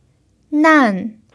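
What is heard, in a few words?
"nan" fourth tone, chinese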